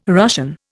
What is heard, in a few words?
"Russian", Indian